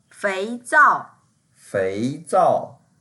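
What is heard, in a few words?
"fei2zao4", Mandarin Chinese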